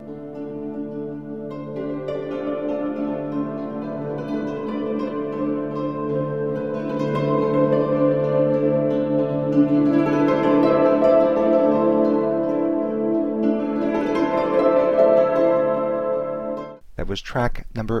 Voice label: D spoken, World Loops; World Loops 2; MagnaLoops